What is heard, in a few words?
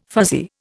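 "fuzzy", Indian English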